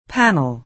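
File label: "panel", American English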